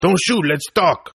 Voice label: Tough guy Talk English, Tough Hispanic Male Saying; Talking Sound Effects